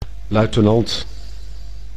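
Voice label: "luitenant", Dutch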